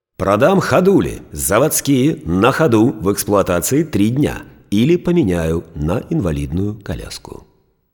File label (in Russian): Diktori studii Reclama; Bashnya; Продам ходули...